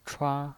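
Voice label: "chua", Mandarin Chinese, first tone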